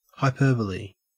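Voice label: "hyperbole", Australian English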